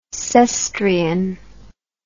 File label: "cestrian", English